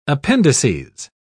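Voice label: "appendices", British English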